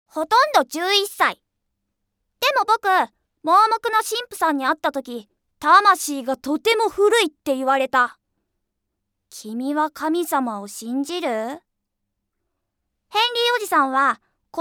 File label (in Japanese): 男の子